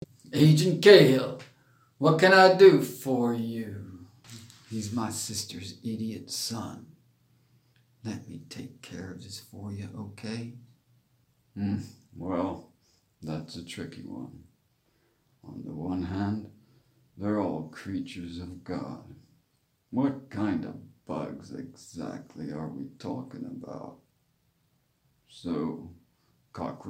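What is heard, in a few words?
US English slight Southern Western Country Accent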